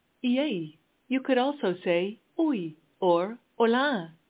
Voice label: Portuguese, hello